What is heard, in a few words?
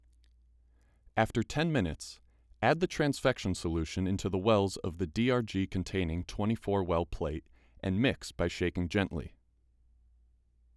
voiceover